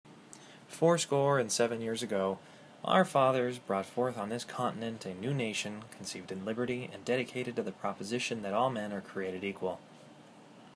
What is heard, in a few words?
internal mic test